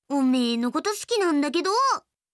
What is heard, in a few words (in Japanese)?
好きです 茨城; 真美